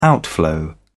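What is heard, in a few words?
"outflow", British English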